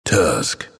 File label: announcer pick tuskkar; dlc bastion